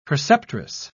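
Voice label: "preceptress", British English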